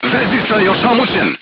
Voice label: voice message machine gunner contused, crew tanks serbian